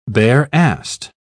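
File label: "bare-assed", British English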